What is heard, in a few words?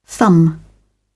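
"thumb", British English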